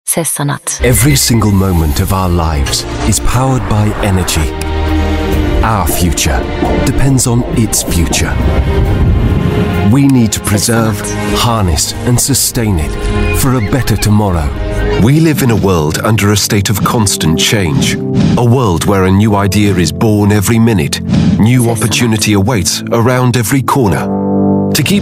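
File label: English British, British English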